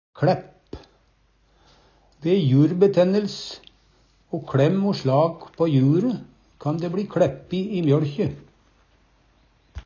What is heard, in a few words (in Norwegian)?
kLæpp, Numedalsmål; Ve jurbetænnelse o kLæm o slag på jure kan dæ bLi kLæppi i mjøLkje.